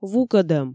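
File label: "вукӧдӧм", Komi, female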